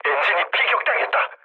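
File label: Korean damaged engine mood low, speech; War Thunder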